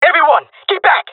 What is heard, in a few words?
request fallback, male; player vo; Counter Strike Model Pack; CS:GO